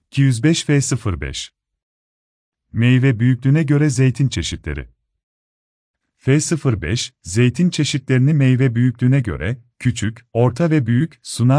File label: turkish